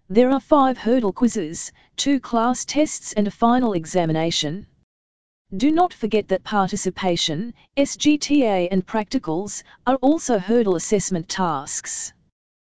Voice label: dialog